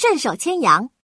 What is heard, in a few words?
snatch, male; FreeKill; standard cards